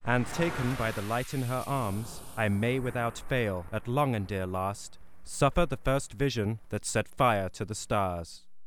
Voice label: speech dramatic